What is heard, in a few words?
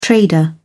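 "trader", British English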